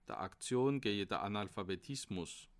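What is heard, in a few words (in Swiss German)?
"aktion", Bas Rhin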